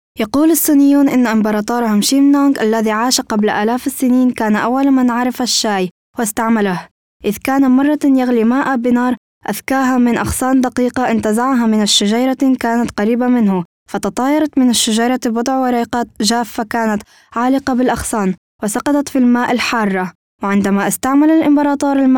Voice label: arabic f narration